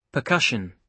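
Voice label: "percussion", British English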